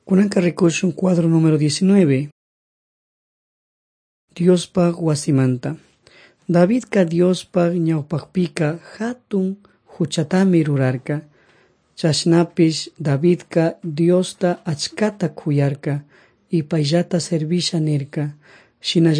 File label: servants of god quichua chimborazo